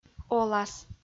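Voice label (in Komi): "олас", Komi, female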